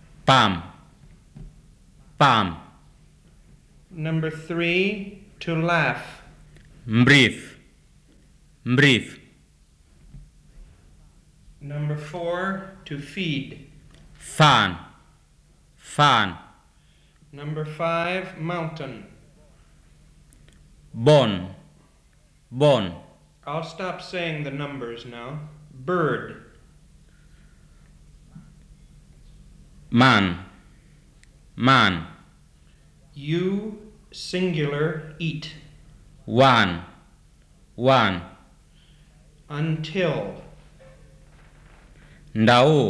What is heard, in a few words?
BIAK